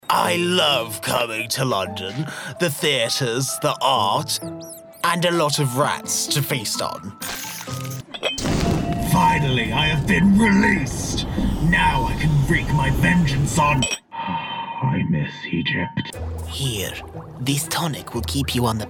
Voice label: transgender female, English, multiple accents male voice character